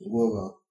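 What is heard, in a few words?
head female description, Bulgarian; people; body parts; stamps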